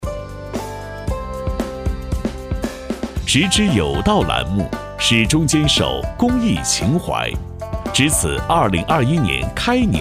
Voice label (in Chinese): 男 公益旁白 轻快